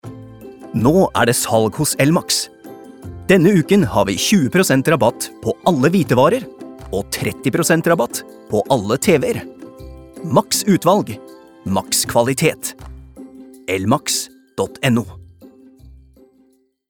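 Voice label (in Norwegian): reklame, Norwegian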